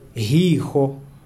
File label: eye, kalanga